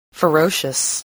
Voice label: "ferocious", spelling